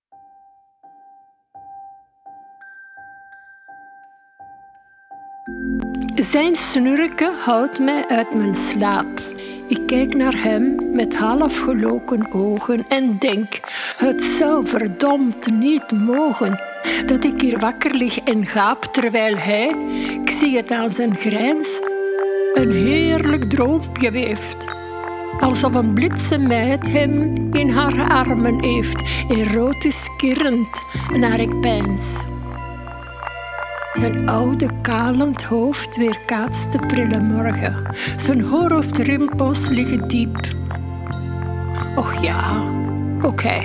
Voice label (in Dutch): Snurkgedicht met muziek; Gedichten met geluid; moeke